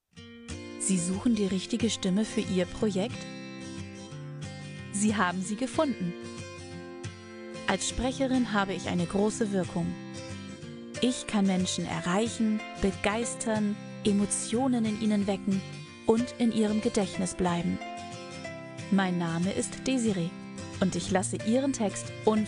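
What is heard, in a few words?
German, female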